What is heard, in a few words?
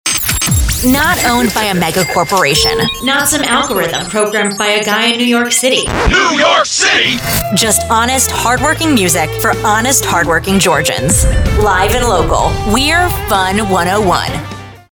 english american radio imaging